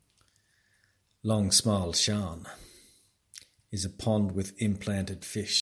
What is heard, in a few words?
English voice; Langsmaltjarnen